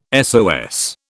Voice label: "SOS", British

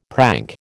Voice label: "prank", American

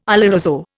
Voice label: "oloroso", Indian

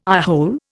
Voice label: "eyehole", Indian

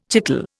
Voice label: "chicle", Indian

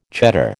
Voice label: "Cheddar", American

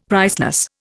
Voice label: "priceless", Indian; Dictionary

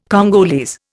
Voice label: "Congolese", Indian

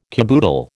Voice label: "caboodle", American

